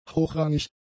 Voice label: "hochrangig", German; adjectives